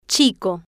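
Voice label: "chico" Spanish, female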